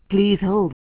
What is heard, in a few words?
pls hold silent; asterisk